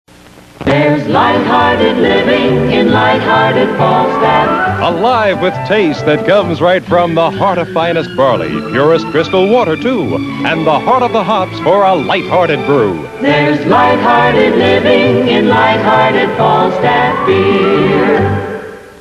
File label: Beer Commercial 60s; Falstaff